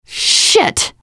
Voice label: Reaction Negative; svencoop

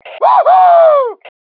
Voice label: "WOOHOO", male

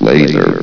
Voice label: "laser", vox

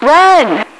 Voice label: "run", radio, female

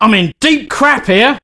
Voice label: Help, male, voice commands; svencoop

